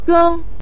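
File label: "geng", Mandarin Chinese, neutral tone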